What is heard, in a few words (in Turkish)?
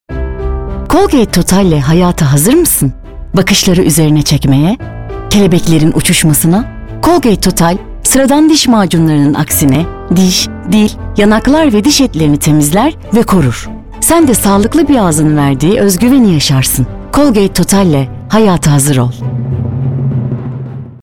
reklam; colgate